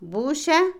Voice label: Otomí, mujer; bolsillo; Ropa; Vocabulary; INALI

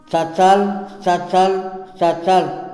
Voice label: difícil, Tojolabal

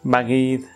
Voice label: mariposa, Zapoteco animales; Vocabulary

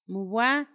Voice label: "sentado", Paipai; Vocabulary; INALI

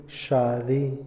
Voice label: orar, Otomí, hombre; Religión; Vocabulary; INALI

